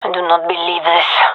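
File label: scared, female; vo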